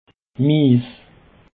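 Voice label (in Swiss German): "miess", Alsatian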